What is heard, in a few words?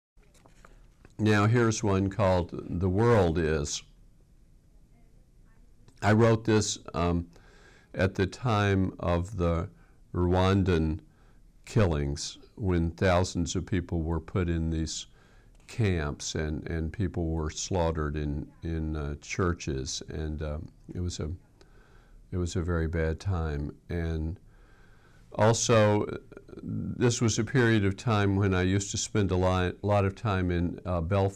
poem